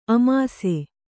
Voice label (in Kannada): "ಆಮಿಸ", Kannada